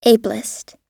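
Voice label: "ablest", American English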